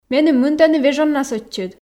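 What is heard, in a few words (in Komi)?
меным мынтӧны вежоннас ӧтчыд, Komi, female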